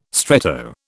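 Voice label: "stretto", British English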